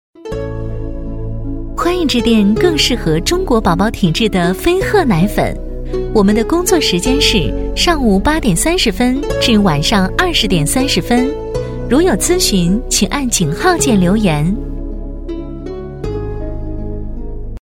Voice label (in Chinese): 女, 电话彩铃, 奶粉, 年轻甜美; 质感知性; 甜美亲切; 飞鹤